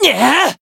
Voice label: Voice attack, jp